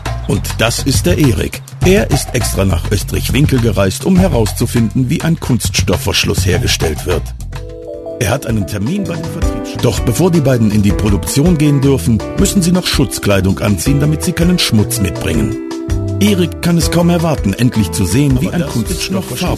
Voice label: german voice over male narrator

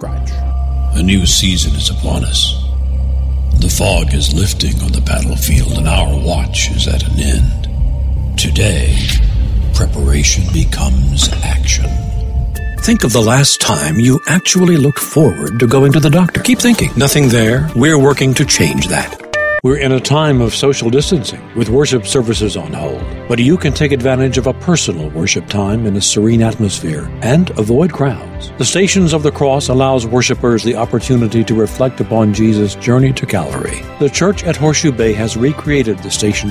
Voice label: english american voice over male

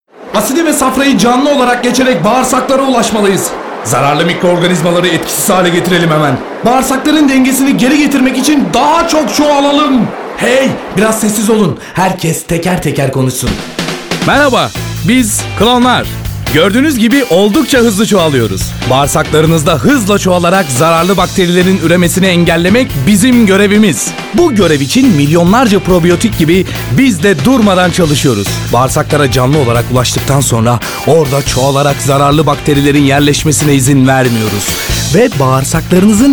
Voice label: elearning; probiyotik klonlar

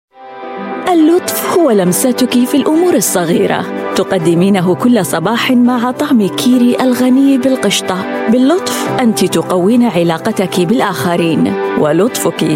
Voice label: arabic voice over female commercial

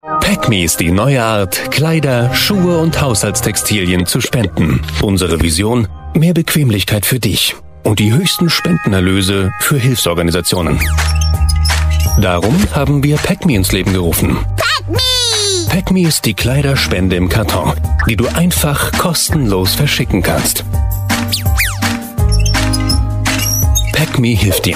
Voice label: german voice over male narrator

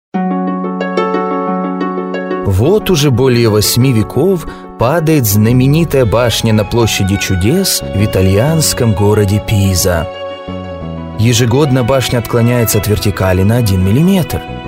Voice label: russian voice over male documentary

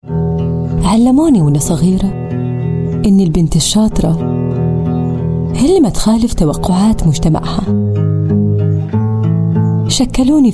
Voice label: arabic voice over female elearning

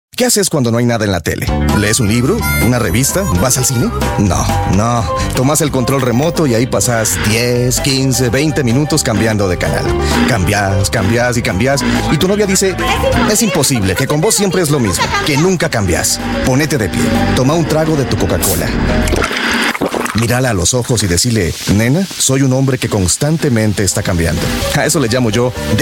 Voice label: spanish voice over male commercial

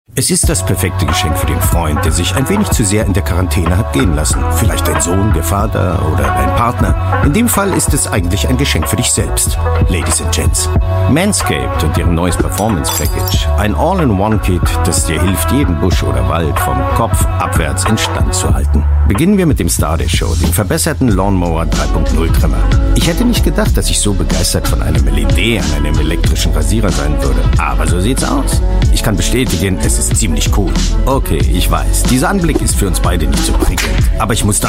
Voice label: german voice over male narrator

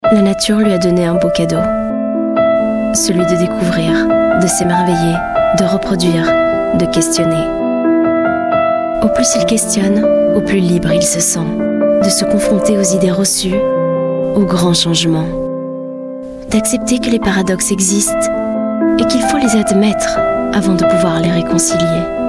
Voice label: french voice over female narrator

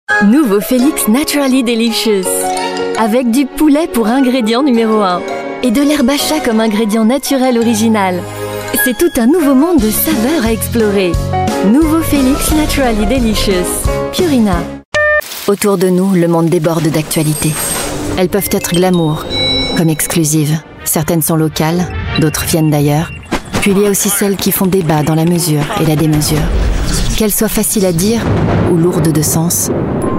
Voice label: french voice over female commercial

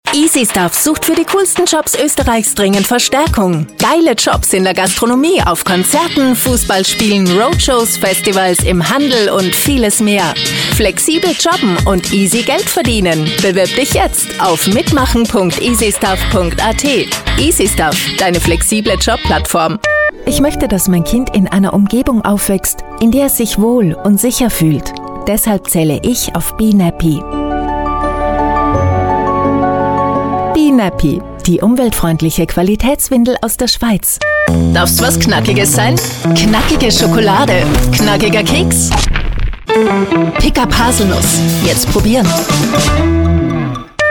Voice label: german voice over female commercial

